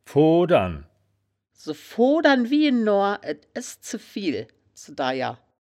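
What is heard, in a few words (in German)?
"foodern", wittlicher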